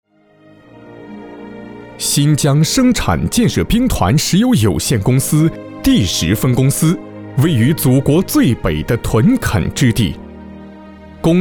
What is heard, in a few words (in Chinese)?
男, 专题磁性系列; 新疆兵团石油公司